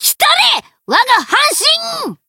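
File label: variable chant, Japanese; morgana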